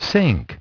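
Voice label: "sing", English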